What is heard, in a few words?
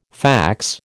"fax", American